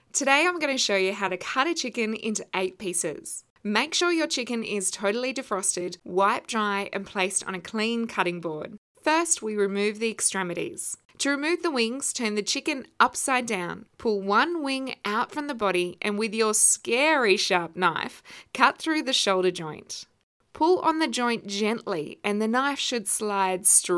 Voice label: Video Voice